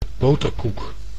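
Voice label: "boterkoek", Dutch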